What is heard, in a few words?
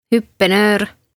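"Huppenöör" Estonian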